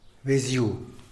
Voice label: "beziu" type of bee; animals